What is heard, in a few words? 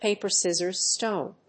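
"paper scissors stone", English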